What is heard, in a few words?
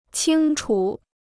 "清楚", Chinese